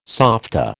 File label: "softa", American